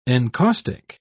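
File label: "encaustic", British English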